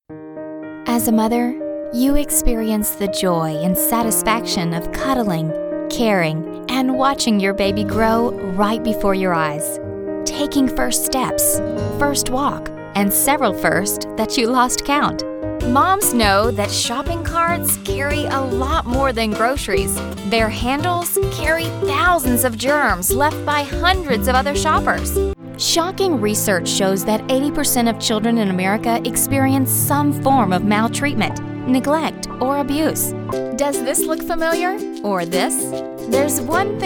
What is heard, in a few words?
Mom Voices